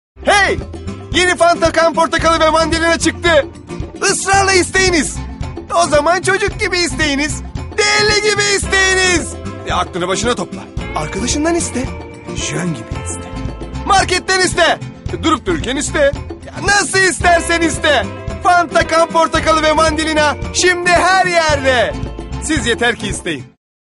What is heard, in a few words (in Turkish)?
enerjik esprili